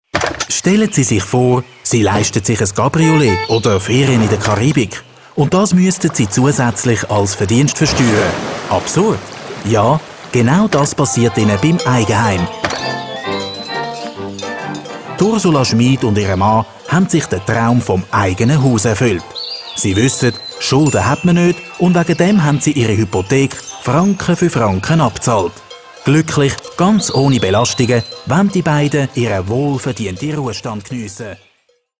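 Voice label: German, Swiss, male, commercial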